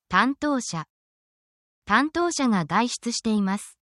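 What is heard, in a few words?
Japanese word, japanese phrases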